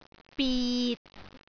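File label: "bit6", Cantonese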